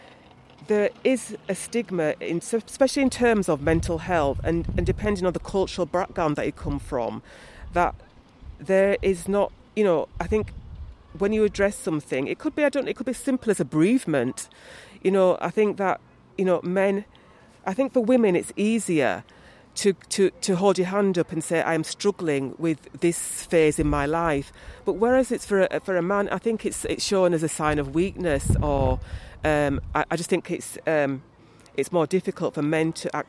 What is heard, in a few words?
Female